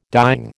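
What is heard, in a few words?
"dying", American English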